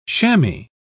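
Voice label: "chammy", British English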